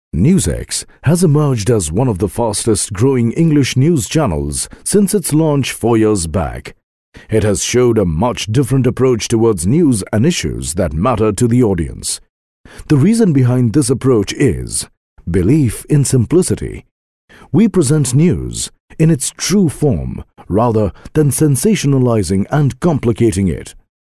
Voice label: English vo, male voice

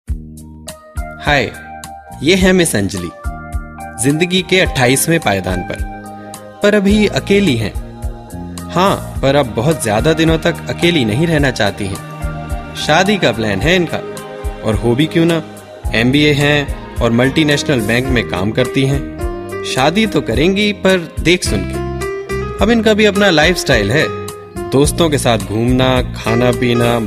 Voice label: delhi, malevoice

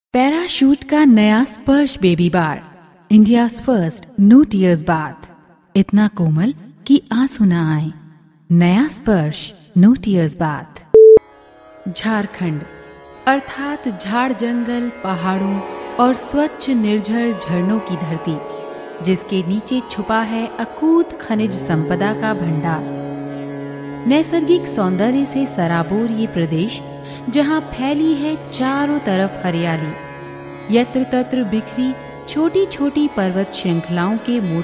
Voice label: female voice, delhi

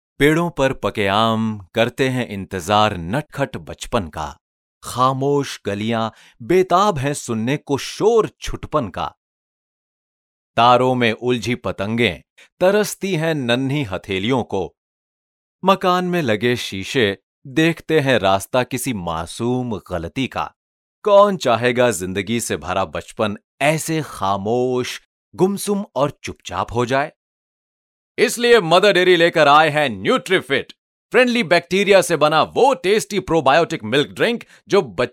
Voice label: character, male voice

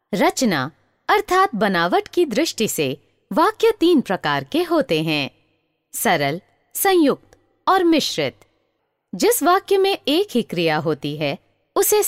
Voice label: Hindi, female voice; EL Karak

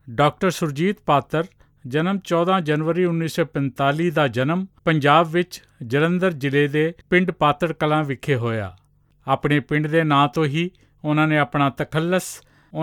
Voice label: punjabi, male voice

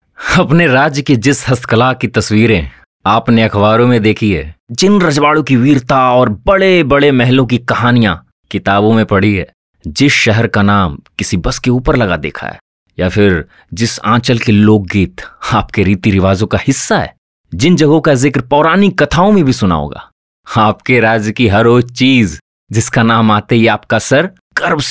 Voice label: Hindi, male voice

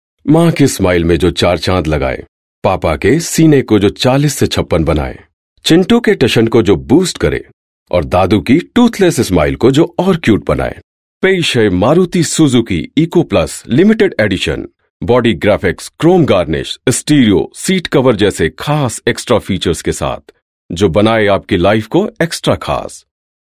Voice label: Hindi, male voice

